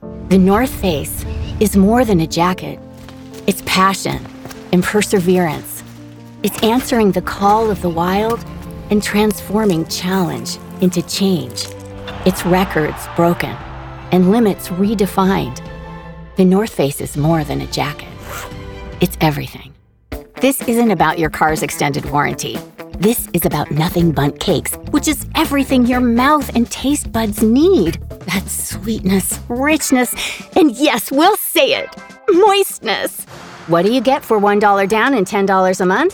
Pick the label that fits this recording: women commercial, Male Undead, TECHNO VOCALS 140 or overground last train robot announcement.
women commercial